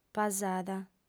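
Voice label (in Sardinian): "pasada", female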